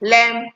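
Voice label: "lem", Nigerian Pidgin, female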